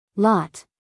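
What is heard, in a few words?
"lot", American English, female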